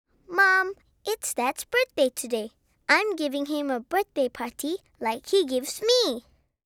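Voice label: English; Dettol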